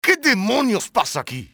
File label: what the explicit, spanish soldier; XBOX